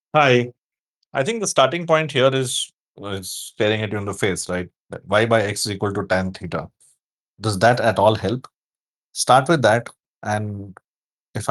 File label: voice message again